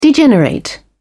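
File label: "degenerate", British English, dictionary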